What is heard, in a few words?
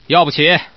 buyao, man; guizhou